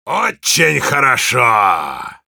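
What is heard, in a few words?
positive vocalization, Russian; «Очень хорошо.»; Heavy; Насмешки пулемётчика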